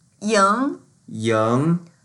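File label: "ying2", Mandarin Chinese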